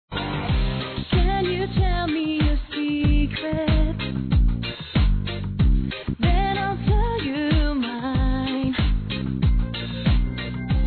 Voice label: ANIV. GERAL F; Aniversários; Aniversários diversos; fem

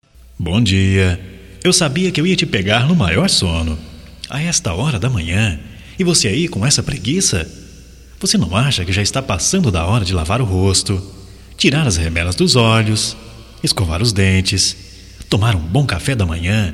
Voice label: BOM DIA GOZACAO, Voz, masc; Telemensagens; Só Voz; Telemensagens 2017

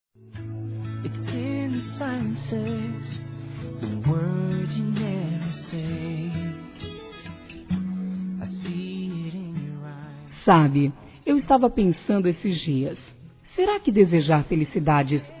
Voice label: Aniversário Primo(a) Feminino; Aniversários; Aniv Familiar

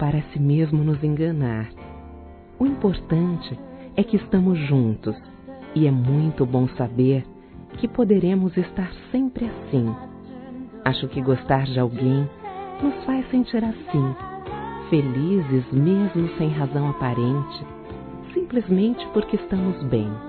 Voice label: Romantica Aniversário Namoro Feminino, Telemensagens; Românticas

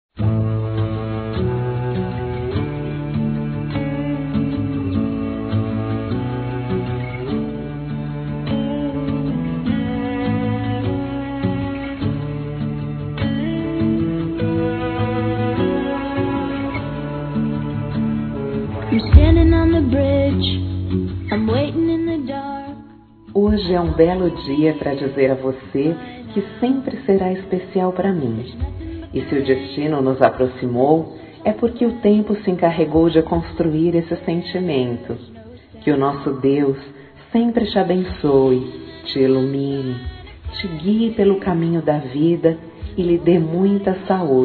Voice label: Aniversário Namoro Feminino; Dia Dos namorados; Telemensagens 2017

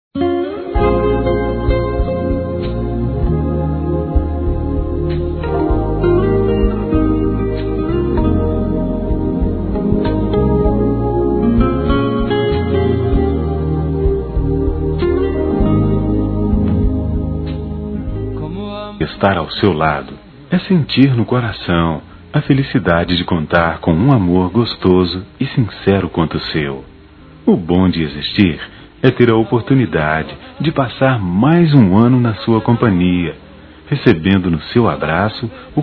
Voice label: ANO NOVO ROMANTIC M; Telemensagens; Natal e Ano novo; masc

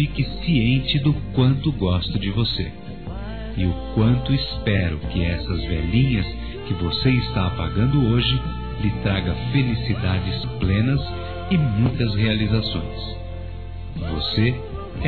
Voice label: aniversário geral, Telemensagens, masc; Aniversários; Aniversários diversos

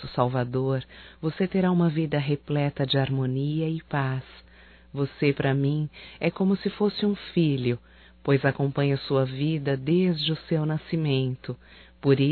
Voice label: NATAL ANO NOVO SOBRINHO (F); Telemensagens 2017

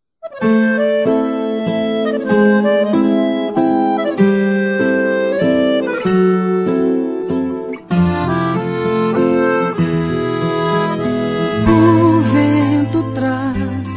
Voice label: ANIV. GERAL F

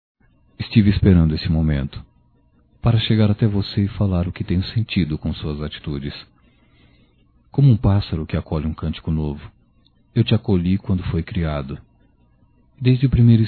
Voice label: Filho Rebelde Masculino, Só Voz; Telemensagens; Telemensagens 2017

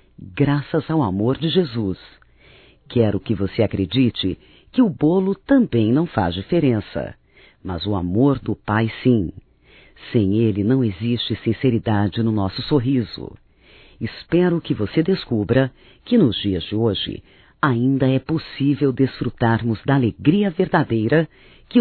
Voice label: ANIV NEUTRO, Telemensagens, Evangelico, fem; Telemensagens 2017

